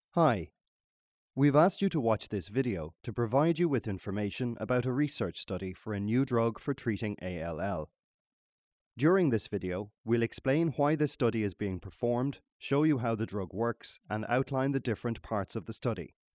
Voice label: Conversational style narration for video encouraging patients to register for a clinical t; Conversational style narration for video encouraging patients to register for a clinical trial